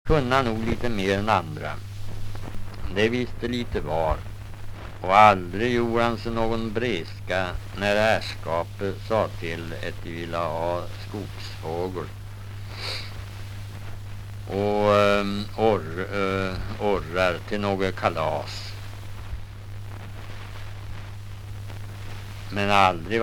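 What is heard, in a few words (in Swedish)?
Riala Uppland, dialekter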